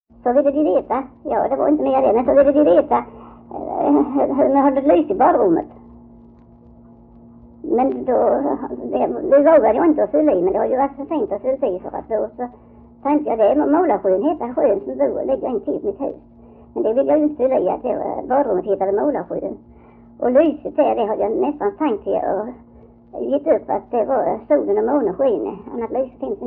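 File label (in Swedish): Öljehult Blekinge, dialekter; Folkminnen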